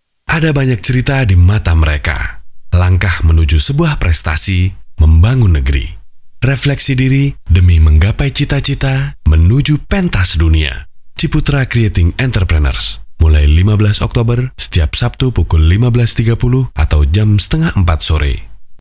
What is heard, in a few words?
Bahasa Indonesia; Ciputra Enterpreneurship Program